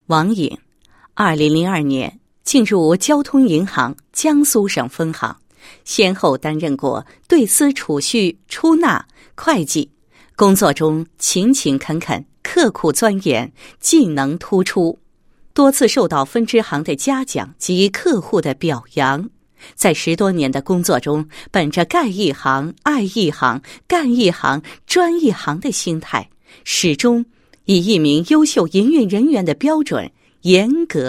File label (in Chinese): 女 人物 介绍; 交通银行员工